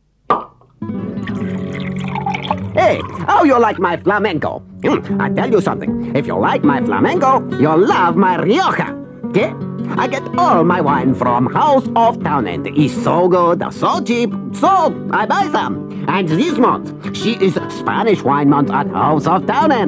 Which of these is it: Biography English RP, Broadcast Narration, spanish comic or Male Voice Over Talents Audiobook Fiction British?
spanish comic